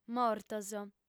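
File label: "mortos", female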